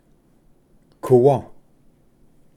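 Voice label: "kuwa", Cherokee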